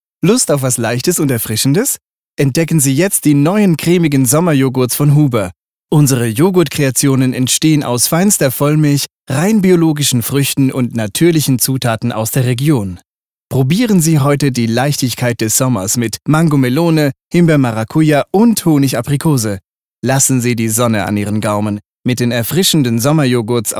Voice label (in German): Werbung; Sommerjoghurt Huber; DE